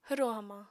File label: "hwro hamañ", Apatani